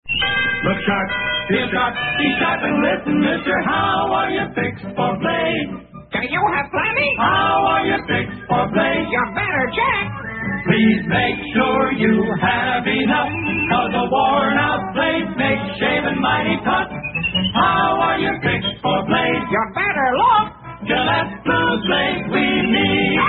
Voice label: Vintage Commercials Blue Blades; Gellete Blue Blades